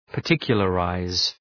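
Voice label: "particularize", English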